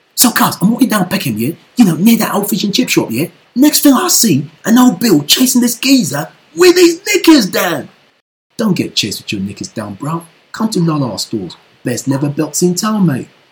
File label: COCKNEY